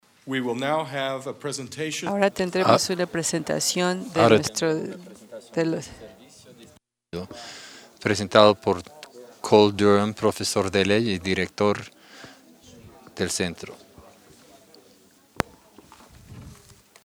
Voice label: Spanish